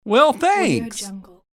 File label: male well thanks; human sounds